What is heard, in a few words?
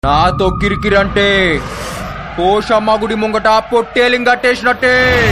Dialogue Ringtone